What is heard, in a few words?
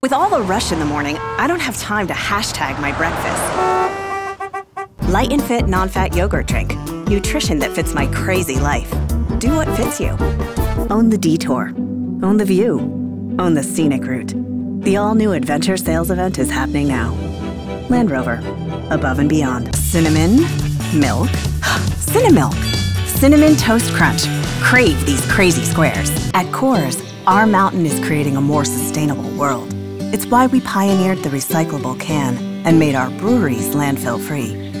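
Commercial, English voice over; 2017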